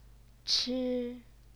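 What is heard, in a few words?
"chi", first tone, Chinese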